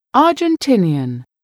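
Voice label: "argentinian", English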